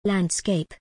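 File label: "landscape", English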